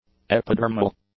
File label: "epidermal", English; adjectives